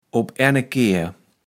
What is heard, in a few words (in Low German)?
"opennekehr", kaevels platt